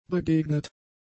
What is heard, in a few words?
"begegnet", German; adjectives